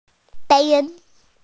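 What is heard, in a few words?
"white cloud", Mandarin, hearing-impaired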